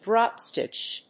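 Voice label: "drop stitch", English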